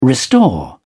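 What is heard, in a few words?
"restore", English, British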